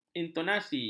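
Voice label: "intonasi", Malay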